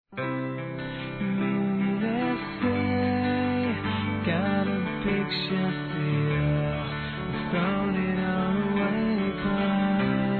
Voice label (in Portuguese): ANIV. NEUTRO (PURAL) (M)